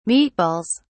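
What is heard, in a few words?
"meatballs", British English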